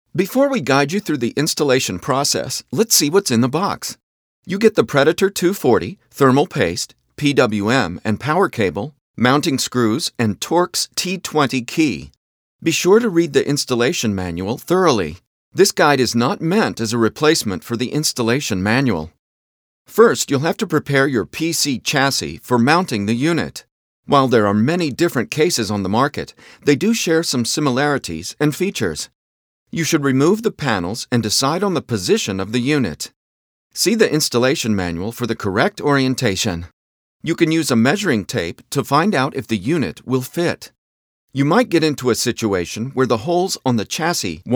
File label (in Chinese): 外籍男 产品讲解